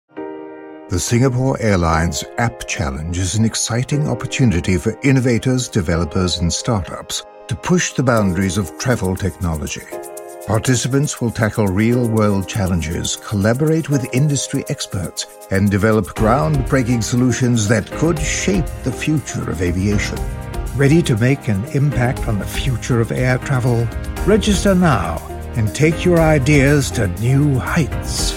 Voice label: Corporate; home studios

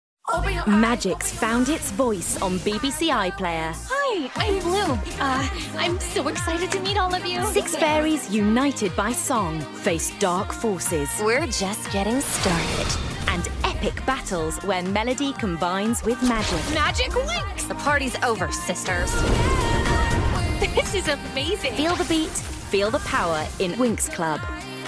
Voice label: Narration, female

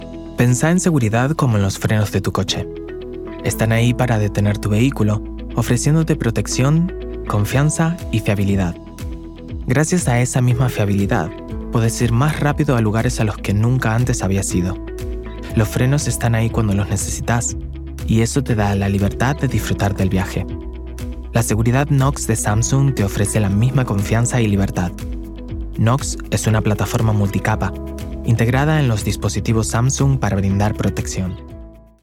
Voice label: Spanish

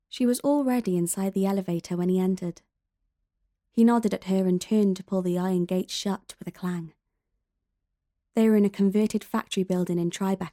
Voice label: Audiobook, regional accents

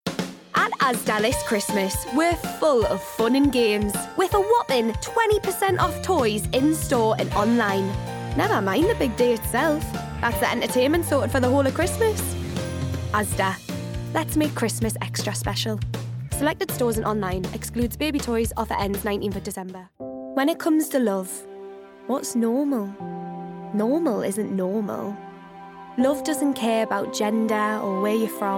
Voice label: Commercial

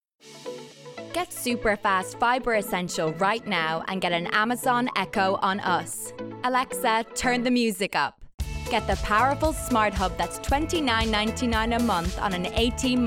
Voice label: Commercial

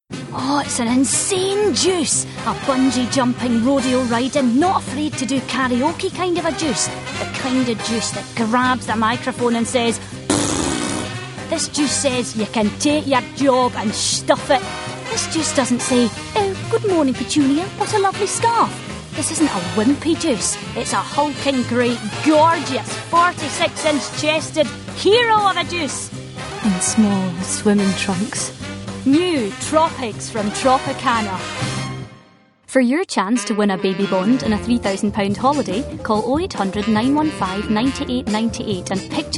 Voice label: Scottish

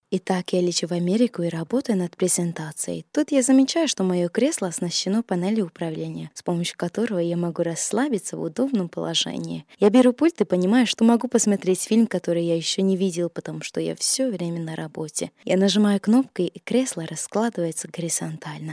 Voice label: Russian

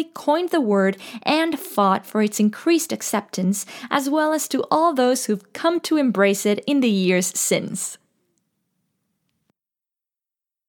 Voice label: Home Studio Read, Spanish-Latin (Mexico), Female; Studio, 20s-30s

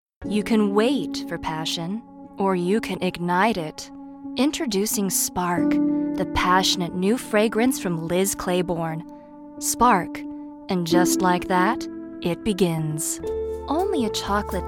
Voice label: commercial

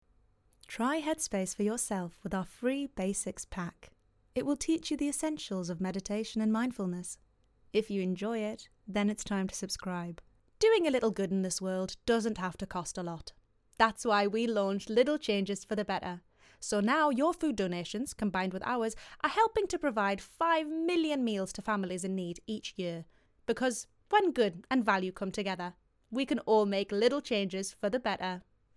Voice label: English Reel

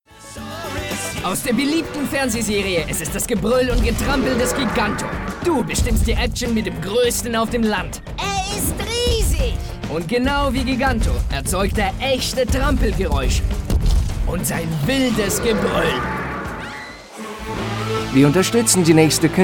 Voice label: GERMAN Voice

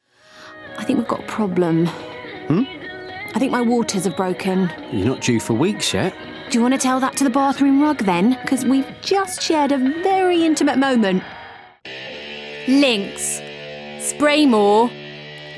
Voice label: UK commercial

